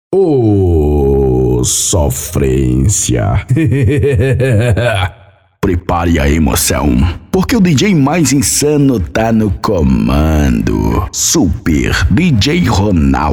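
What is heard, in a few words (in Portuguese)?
Vinhetas sem efeitos de fundo; São vinhetas sem efeitos sonoros de fundo, voz tratada, equalização e limpeza de ruídos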